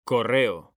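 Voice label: "CORREO", Spanish, male; mekanta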